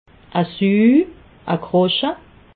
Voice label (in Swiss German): "suu", alsace